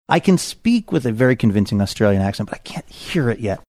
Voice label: i can speak with very convincing australian accent